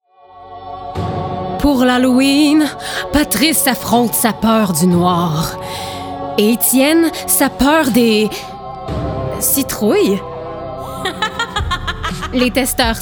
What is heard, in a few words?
Voice Halloween; VRAK